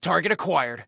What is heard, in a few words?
Target Acquired, Soldier Male